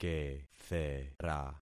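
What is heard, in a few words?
"kethera", concatenated syllables; Affixing experiment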